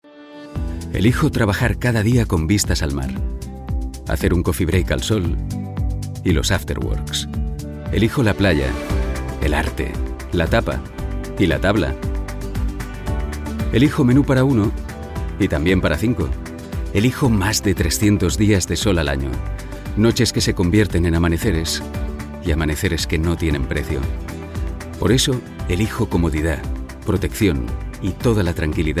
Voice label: male spanish bank comercial; ideasonora